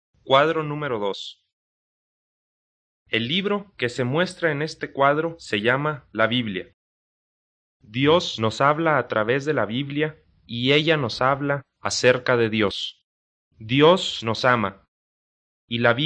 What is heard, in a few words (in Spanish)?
good news spanish mexico